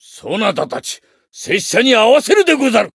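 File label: Voice limit break, Japanese; cyrus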